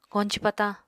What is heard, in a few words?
"koñci patañ", Apatani